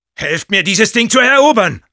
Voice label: help me capture, de; Sniper